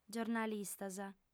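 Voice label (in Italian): "giornalistas", lingua sarda, female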